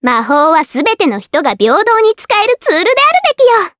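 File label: vox Japanese; Annette; kingsraid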